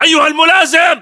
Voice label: rank lieutenant, battle chatter, english